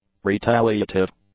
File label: "retaliative", English; adjectives